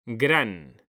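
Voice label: "gran", Spanish, male; mekanta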